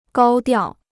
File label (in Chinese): "高调", Chinese